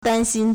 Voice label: "dan1 xin1", Chinese

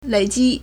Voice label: "lei3ji1", Chinese

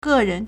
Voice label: "ge4 ren2", Chinese

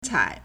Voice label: "cai3", Chinese

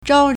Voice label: "zhao1", Chinese

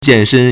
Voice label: "jian4shen1", Chinese

